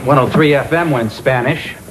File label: Spanish radio